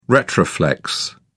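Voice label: "retroflex", British English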